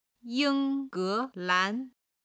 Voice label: "ying1 ge2 lan2", Chinese